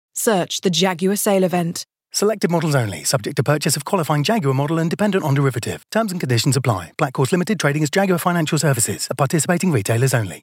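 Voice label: Energetic and Natural Male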